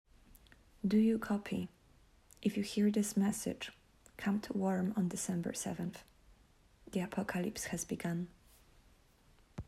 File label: Emergency message English